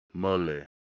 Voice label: male